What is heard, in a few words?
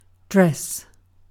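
"dress", British English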